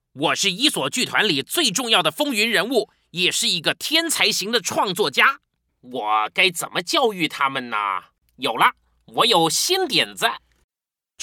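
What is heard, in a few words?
Chinese Taiwan Male Character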